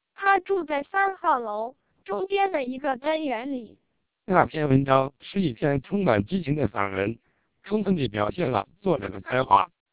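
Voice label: Chinese speech, TWELP 480 bps vocoder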